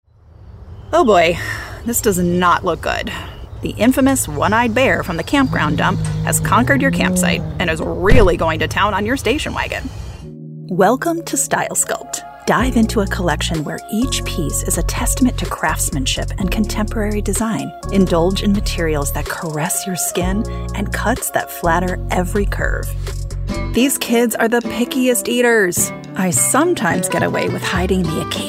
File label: Commercial, Professional Female Voice Over